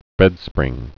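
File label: "bedspring", spoken word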